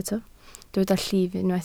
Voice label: "llif", Welsh